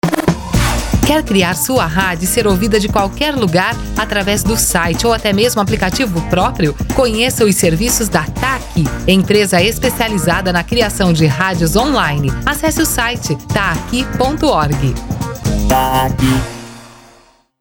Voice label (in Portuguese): feminina